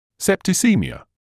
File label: "septicaemia", English